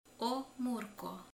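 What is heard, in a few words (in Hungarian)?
"répa", Hungarian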